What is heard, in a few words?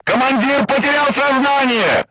voice message commander contused, crew tanks russian